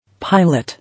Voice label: "Pilot", English